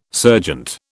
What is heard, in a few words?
"serjeant", British